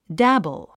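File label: "dabble", English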